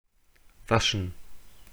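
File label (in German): "waschen", German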